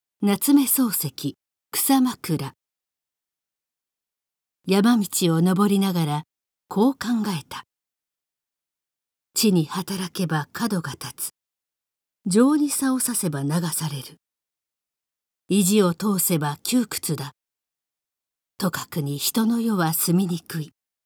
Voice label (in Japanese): normal, 標準; 草枕; 夏目漱石; Japanese